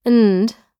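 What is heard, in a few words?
"and", US English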